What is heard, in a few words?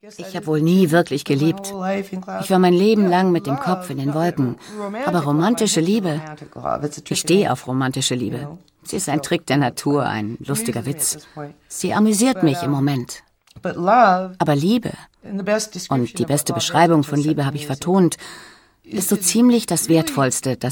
Voice Over; ARTE; Hippie Folk Goddess